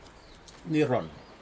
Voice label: basket, Aneityum

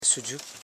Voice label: su.dgu, koro, house parts

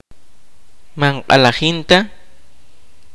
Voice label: kaqchikel; invisible

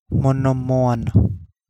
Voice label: Kapingamarangi; island swiftlet

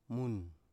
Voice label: "mun", Biak

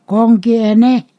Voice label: "to whom", Ponosakan

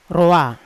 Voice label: "roa" lime, Yawa

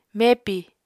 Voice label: "mepi", Apatani

